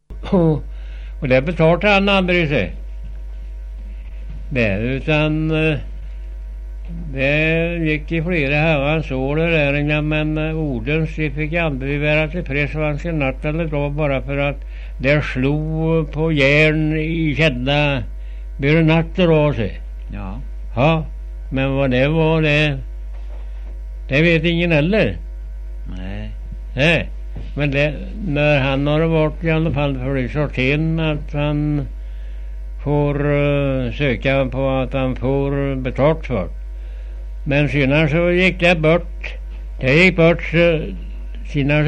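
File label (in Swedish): Gudhem Västergötland, dialekter; Folkminnen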